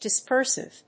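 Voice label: "dispersive", English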